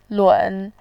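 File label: "lun", Mandarin, tone 3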